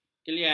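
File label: "kelian", Malay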